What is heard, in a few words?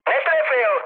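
bomb failure, Czech, mood med; War Thunder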